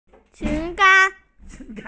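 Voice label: "ping2an1", Mandarin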